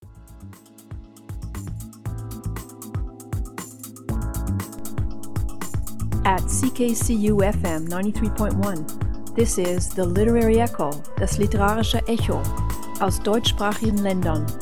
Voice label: STATION IDENTIFICATION 32000 Hz